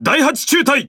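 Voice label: Speech Pilots Fighter, Japanese; World Of Warships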